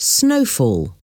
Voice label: "snowfall"